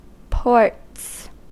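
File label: "ports", US English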